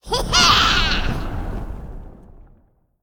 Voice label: Female Fire Spirit Attack